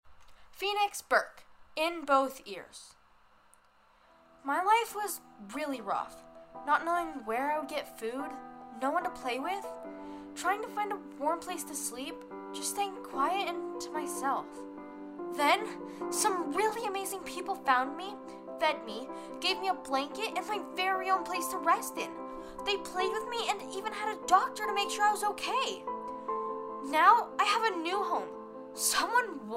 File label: kids commercial